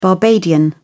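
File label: "barbadian", British English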